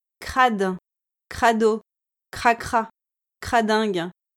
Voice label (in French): "crade" "crado" "cracra" "cradingue", French